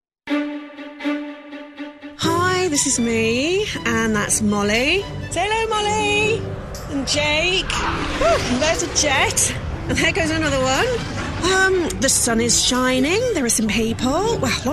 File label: ultra-natural